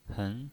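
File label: "hen", Mandarin Chinese, second tone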